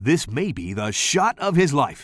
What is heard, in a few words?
commentator